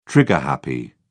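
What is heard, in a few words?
"trigger happy", British English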